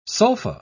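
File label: "sulfa", British English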